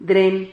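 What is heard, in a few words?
"dren", Spanish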